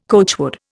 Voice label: "coachwood", Indian